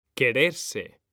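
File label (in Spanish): "quererse", male; mekanta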